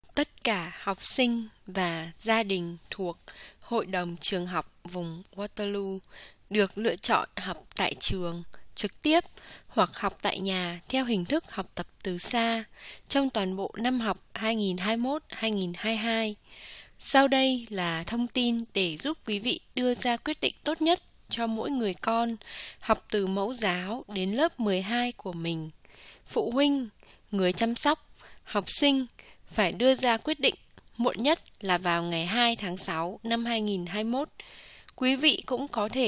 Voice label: Script Intro Vietnamese

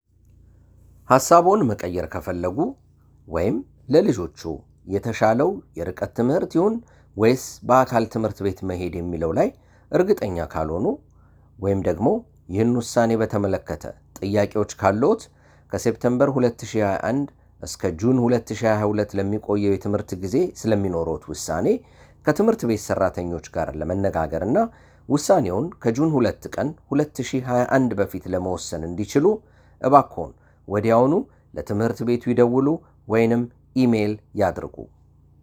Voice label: Script Amharic

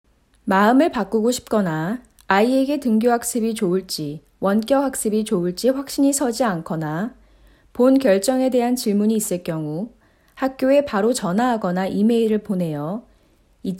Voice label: Korean

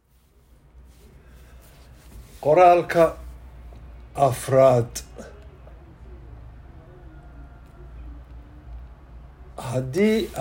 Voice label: Somali

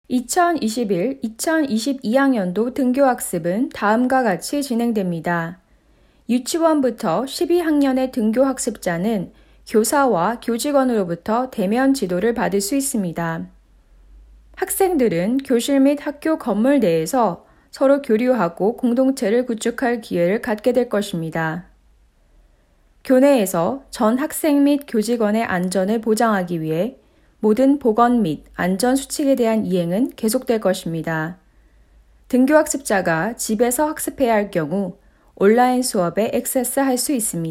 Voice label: Korean

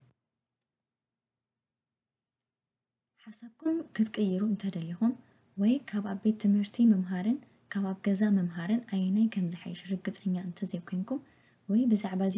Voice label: Tigrinya

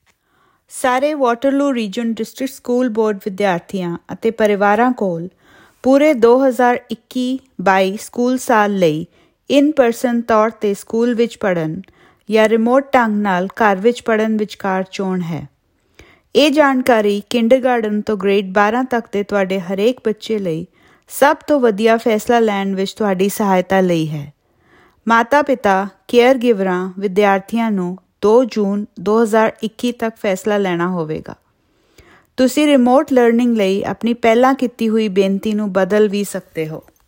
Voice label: Intro Punjabi

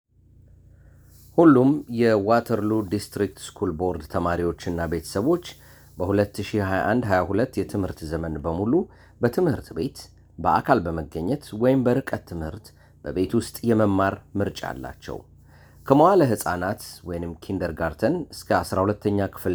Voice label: Script Amharic

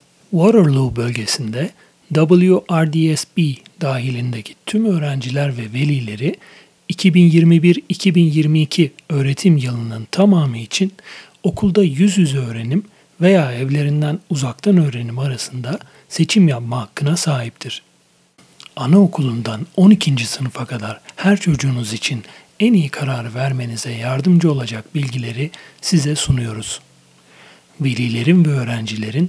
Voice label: Turkish

